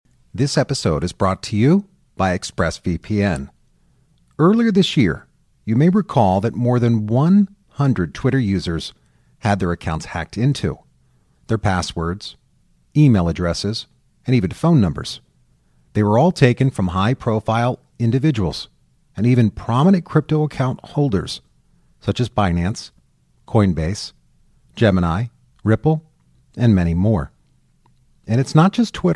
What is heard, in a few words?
narrator; Express VPN